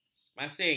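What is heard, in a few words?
"masing", Malay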